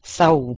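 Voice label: "seh2ul", Proto-Indo-European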